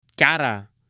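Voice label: "cara", Spanish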